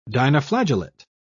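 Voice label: "dinoflagellate", British English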